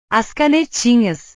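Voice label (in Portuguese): "as canetinhas", Portuguese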